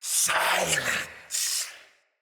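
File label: See Player Knight Male, Battle; Barks; Mendicant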